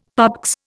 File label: "PABX", Indian; Dictionary